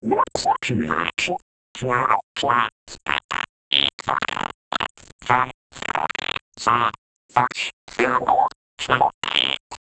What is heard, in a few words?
goblin saying the alphabe